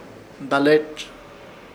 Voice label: "Dalerz", Ishir Chamacoco; en su lugar

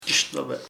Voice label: scared, chamacoco; asustado

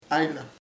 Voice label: happy, chamacoco; contento

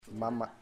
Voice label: my mother, chamacoco

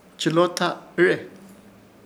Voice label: "Chɨlotaɨre", Ishir Chamacoco; depender en algo

